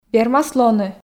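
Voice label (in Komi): вермас лоны, Komi, female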